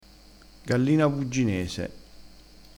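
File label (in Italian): "gallina bugginese", dialettale crespinese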